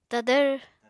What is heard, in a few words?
"tadvr", Apatani